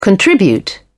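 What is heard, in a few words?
"contribute", British English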